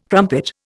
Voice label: "crumpet", Indian